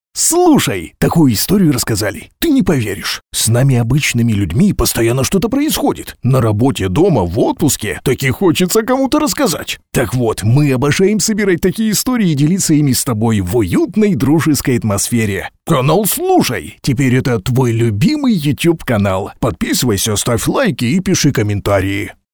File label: russian tv shows